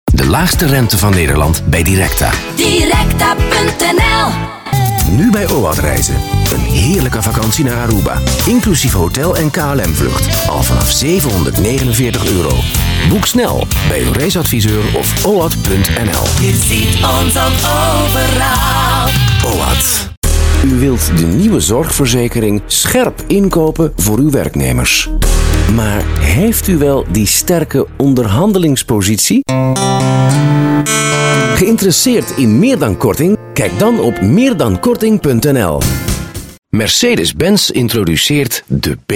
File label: Dutch Commercials, Male